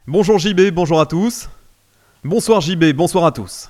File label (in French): voix; METIS FM